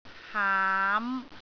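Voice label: "haam5", Cantonese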